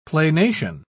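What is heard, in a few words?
"planation", British English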